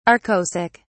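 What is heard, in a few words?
"arkosic", British English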